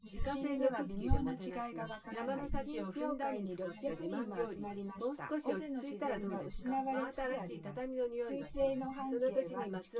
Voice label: female synthconv 130ms 5cm